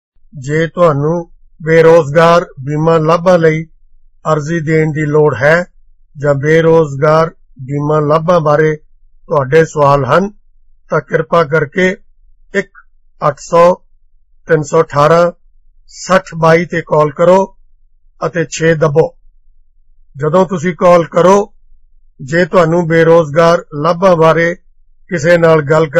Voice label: punjabi